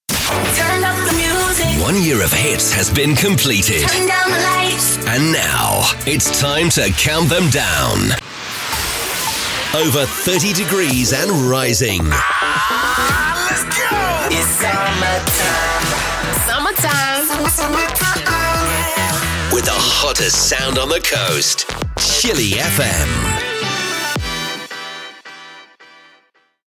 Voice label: promos and imaging